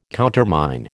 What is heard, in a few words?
"countermine", American